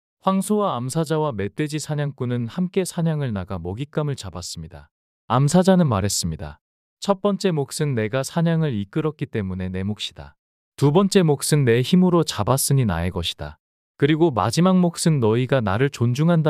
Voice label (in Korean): 이야기 남자; 황소와 암사자와 멧돼지 사냥꾼